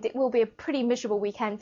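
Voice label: "miserable", English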